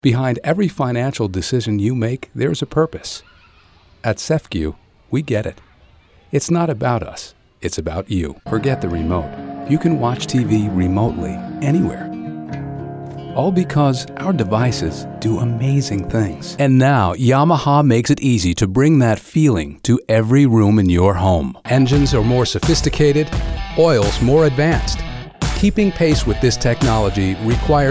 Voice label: Men Commercial